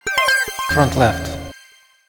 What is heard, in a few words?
audio channel front left